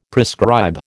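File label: "prescribe", American English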